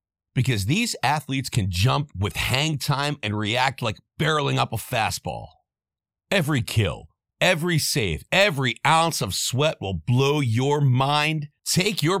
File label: Volleyball VO; Major League Volleyball